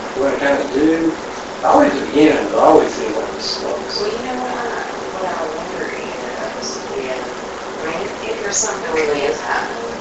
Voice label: Unexplained Low Whisper (Give me a huge) Amplified